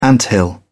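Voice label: "anthill", British English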